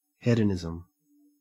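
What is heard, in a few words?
"hedonism", Australian English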